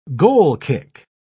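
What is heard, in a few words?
"goal-kick", British English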